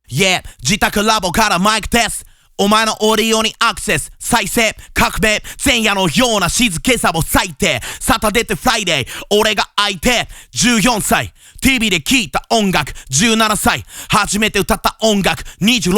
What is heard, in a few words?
mic test & sound check